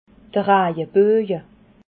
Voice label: "ragaboga", alsace

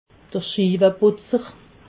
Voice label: "schieweputzer", alsace

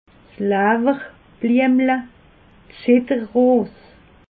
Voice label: "lawwerbliemel", alsace

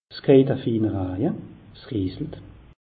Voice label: "keijt", alsace; meteo

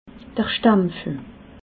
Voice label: "stampfel", alsace

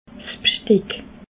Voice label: "bsteck", alsace

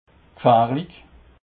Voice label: "gfarlig", alsace

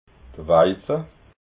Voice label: "weiza", alsace

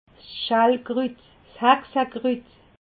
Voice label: "schellkrut", alsace

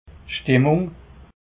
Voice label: "schtimmung", alsace

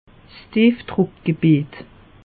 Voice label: "diefdruckgebiet", alsace; meteo

